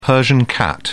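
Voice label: "persian cat", British English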